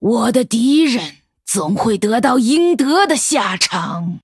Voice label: Female Night Elf Threaten, zh

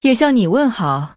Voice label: Female Nightborne MIRROR GREETINGS, zh

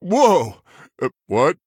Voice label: Male Human Oops; en

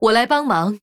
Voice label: Female Human Greetings; zh

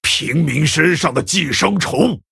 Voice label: Male Orc Ogre MIRROR GREETINGS, zh

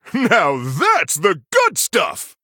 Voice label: Male Human Wow; en

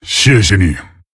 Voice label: Male Tauren Thanks; zh

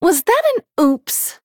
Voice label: Female Human Oops; en

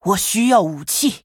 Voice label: Female Human ERROR NEED WEAPON, zh

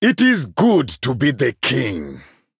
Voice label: Male Troll; en

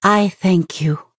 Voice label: Thanks, Female Night Elf

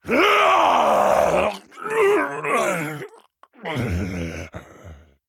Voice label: Death, zh

